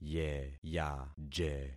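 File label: "yeyaje", concatenated syllables; Affixing experiment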